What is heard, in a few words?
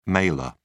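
"mailer", British English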